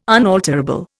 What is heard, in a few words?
"unalterable", Indian